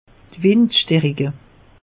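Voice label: "windstarike", Alsatian; meteo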